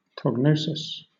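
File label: "prognosis", British English; pronunciations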